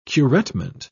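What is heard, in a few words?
"curettement", British English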